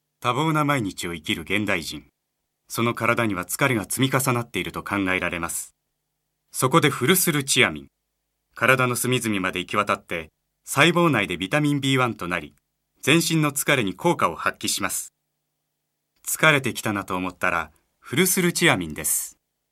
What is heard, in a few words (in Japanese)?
医薬品CM